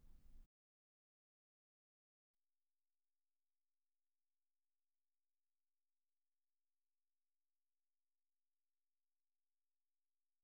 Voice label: Balance, Phase Check Center (voice), In Phase, Out of Phase (voice); Denon Jazz Sampler Plus The Audio Tool Box II